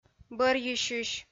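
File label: "бӧрйысьысь", Komi, female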